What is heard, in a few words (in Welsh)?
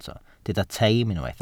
"teim" Welsh